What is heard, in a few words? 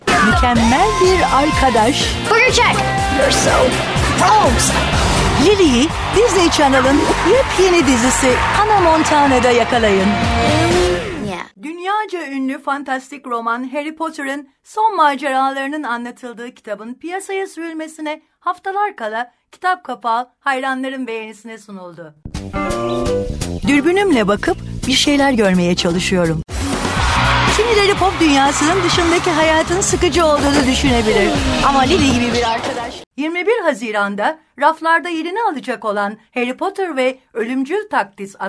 Turkish